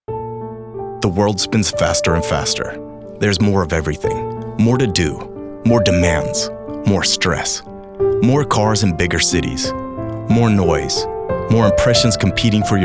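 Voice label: English US male commercial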